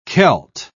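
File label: "kelt", British English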